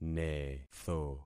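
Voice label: "netho", concatenated syllables; Affixing experiment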